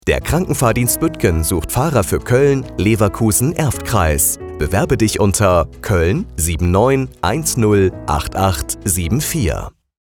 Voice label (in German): Funkspot; KFD Fahrdienst Büttgen